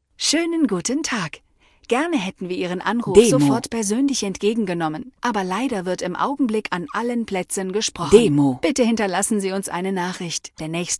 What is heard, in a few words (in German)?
Ansage Alle Leitungen belegt mit Hinweis